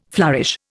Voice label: "flourish", Indian